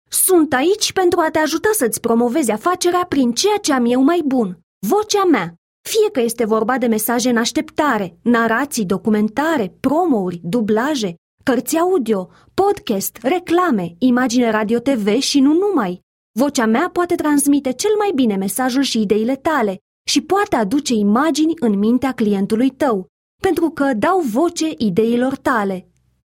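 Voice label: Romanian, female, corporate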